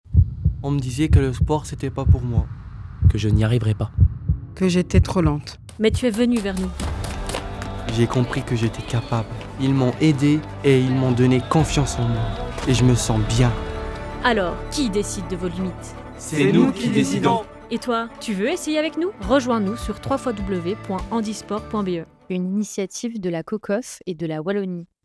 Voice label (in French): Spot radio